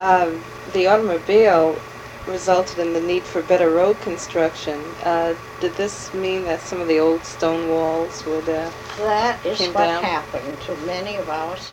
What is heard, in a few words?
Oral history with 85 year old white female Concord Massachusetts; american english dialect